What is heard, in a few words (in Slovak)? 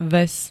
"ves", slovenské